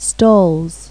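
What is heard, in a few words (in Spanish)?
"gradas", Spanish; Pipo-Aprende musica con Pipo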